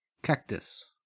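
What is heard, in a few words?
"cactus", Australian English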